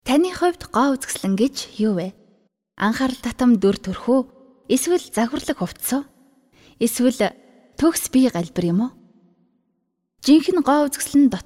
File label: Dubbing